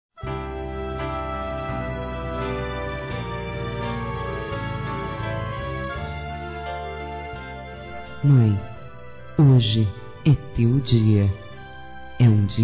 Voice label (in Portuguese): DECLARAÇÃO P MÃE F; Telemensagens 2017